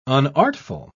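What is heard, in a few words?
"unartful", British English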